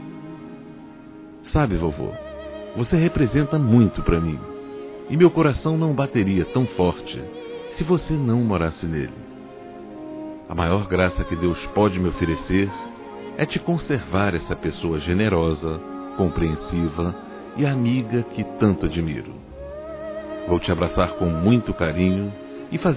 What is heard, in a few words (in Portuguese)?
Aniversário Avô Masculino